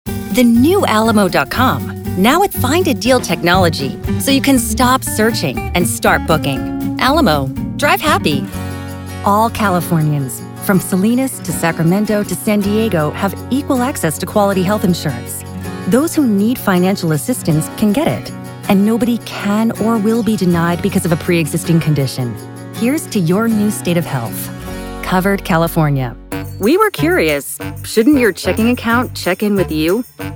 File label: english american commercial; Englisch (US); Vereinigte Staaten; Schauspielerin aus New York City